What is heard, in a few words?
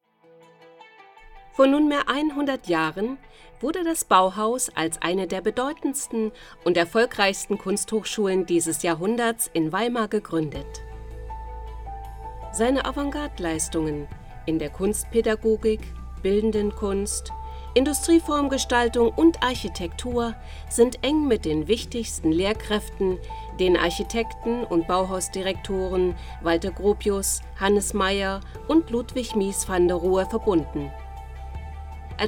Voice Over; Bauhaus